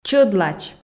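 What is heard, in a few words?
"чӧдлач", Komi, female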